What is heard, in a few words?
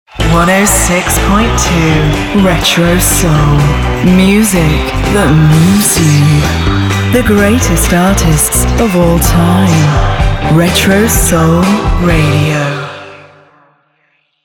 Radio Imaging RETRO